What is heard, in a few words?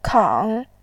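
"kang", Mandarin Chinese, third tone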